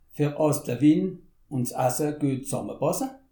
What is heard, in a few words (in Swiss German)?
"ass win", alsace